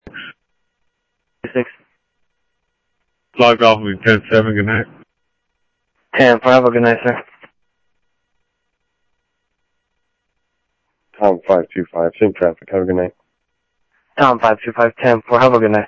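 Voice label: Sheriff Lake Patrol, scanner audio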